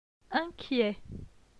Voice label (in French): "inquiet", francais